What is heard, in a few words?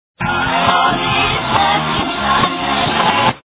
reverse; Evidencias cristianas